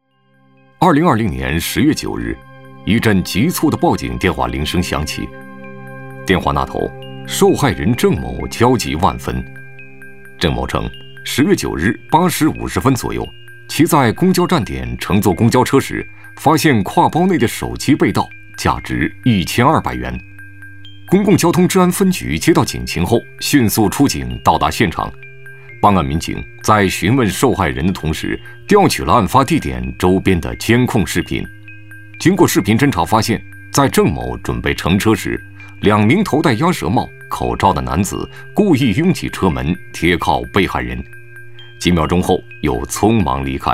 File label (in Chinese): 男 电视片 案件